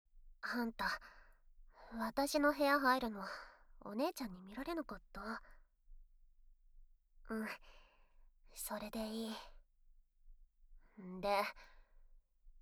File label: ASMR; 妹・かりんのツン媚びフェラチオ♪; 居候先の仲良しJK姉妹を騙して媚び媚び性処理させまくる話～妹には手を出さない!という約束で姉に抜いてもらう裏で、姉には手を出さない!という約束で妹に抜いてもらう～